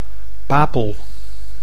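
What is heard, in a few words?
"papel", Dutch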